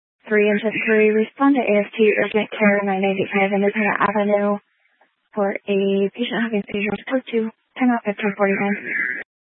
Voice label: Fire Department Dispatch; Grand Junction